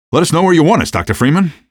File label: squad train, male; Half-Life 2